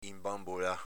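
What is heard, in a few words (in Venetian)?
"inbanbolà", Veneto dialect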